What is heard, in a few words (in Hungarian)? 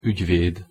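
"ugyved", Hungarian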